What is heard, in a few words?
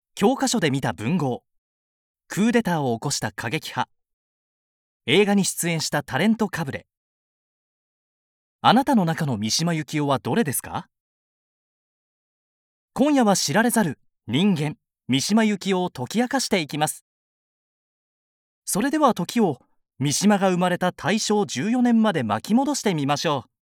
narration